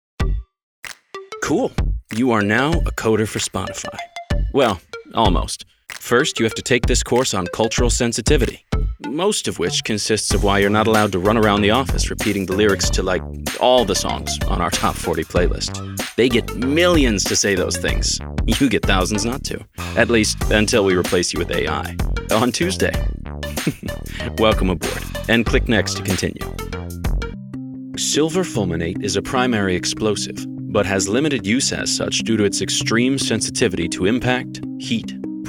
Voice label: E-LEARNING MIX